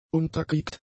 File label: "unterkriegt", German; adjectives